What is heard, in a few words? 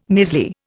"measly", Indian